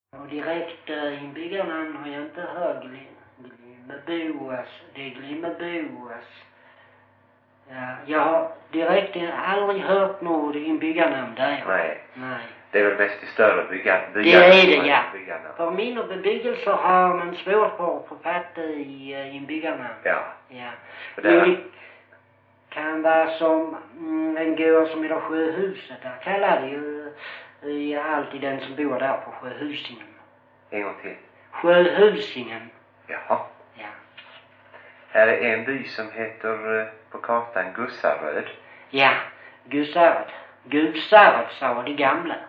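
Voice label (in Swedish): Brösarp Skåne, dialekter; Folkminnen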